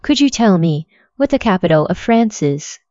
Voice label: female; KE-Omni